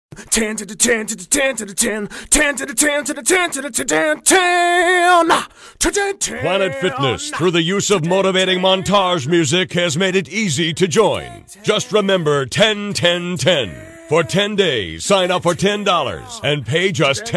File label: Radio Spot, adverts; Planet Fitness